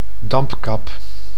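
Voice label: "dampkap", Dutch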